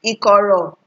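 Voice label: "ikoro" Nigerian Pidgin, female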